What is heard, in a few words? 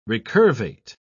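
"recurvate", British English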